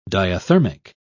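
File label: "diathermic", British English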